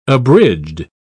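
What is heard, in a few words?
"abridged", English, British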